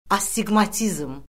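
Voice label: "astiqmatizm", Azerbaijani; Orfoqrafiya Lüğəti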